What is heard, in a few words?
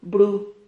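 "bru", Spanish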